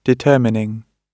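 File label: "determining", British English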